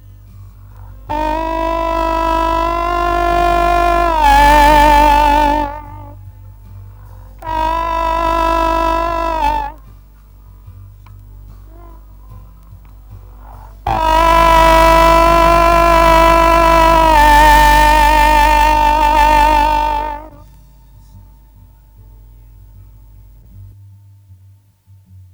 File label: Voice Through Telephone